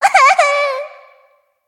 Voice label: hit, en; cookierun